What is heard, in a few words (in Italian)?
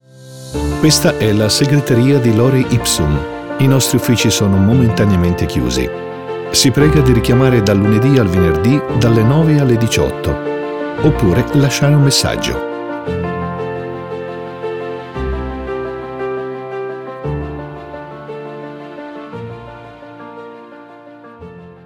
Segreteria